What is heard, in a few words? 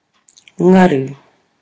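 "ngaru", Māori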